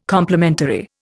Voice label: "complimentary", Indian

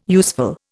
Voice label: "useful", Indian

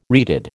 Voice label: "reeded", American

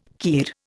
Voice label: "kir", Indian

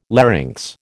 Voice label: "larynx", American

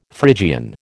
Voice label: "Phrygian", American

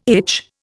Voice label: "itch", Indian

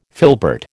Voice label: "filbert", American

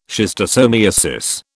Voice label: "schistosomiasis", British

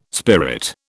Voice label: "spirit", British

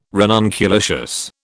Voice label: "ranunculaceous", British

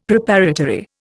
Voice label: "Preparatory", Indian; Dictionary

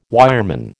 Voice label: "wireman", American

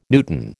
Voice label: "newton", American

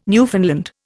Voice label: "Newfoundland", Indian

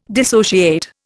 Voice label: "dissociate", Indian

